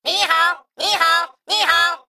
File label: Male Mech HAPPY HALLOWEEN; zh